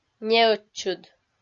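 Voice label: "неӧтчыд", Komi-Zyrian, female; kpv audio dict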